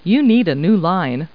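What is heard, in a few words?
woman, Talking Clip Words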